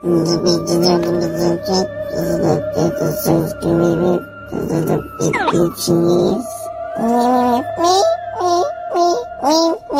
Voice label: alien yapping